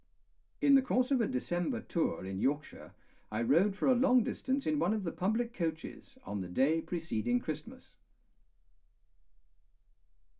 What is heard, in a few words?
male speech english